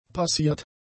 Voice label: "passiert", German; adjectives

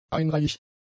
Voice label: "einreihig", German; adjectives

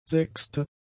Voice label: "sechste", German; adjectives

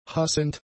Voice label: "hassend", German; adjectives

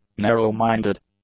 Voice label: "narrow-minded", English; adjectives

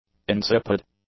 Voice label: "insipid", English; adjectives

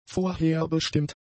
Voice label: "vorherbestimmt", German; adjectives

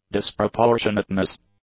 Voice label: "disproportionateness", English

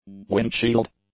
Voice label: "windshield", English; nouns

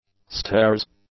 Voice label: "stairs", english; nouns

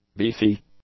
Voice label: "beefy", English; adjectives

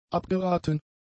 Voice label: "abgeraten", German; adjectives

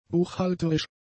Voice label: "buchhalterisch", German; adjectives

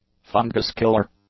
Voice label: "fungus-killer", English; adjectives

